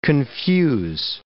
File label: "confuse", English